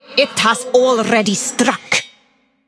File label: Female Iron Vrykul Emote ERROR MINION ATTACKED